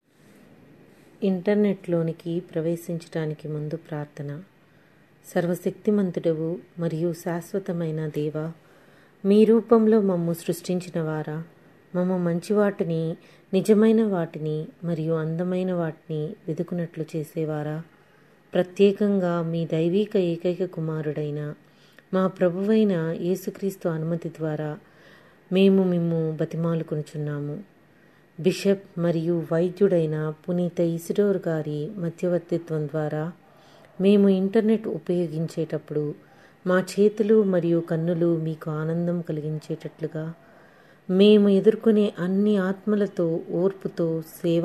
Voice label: prayer telugu